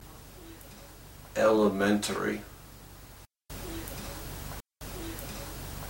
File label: girl